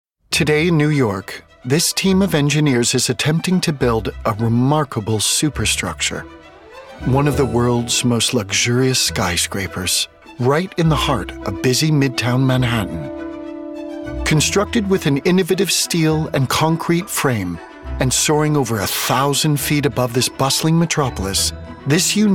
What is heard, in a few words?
Documentary